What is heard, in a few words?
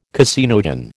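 "caseinogen", American English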